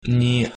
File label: hakka